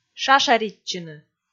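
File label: "шашаритчыны", Komi, female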